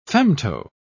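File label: "femto-", British English, dictionary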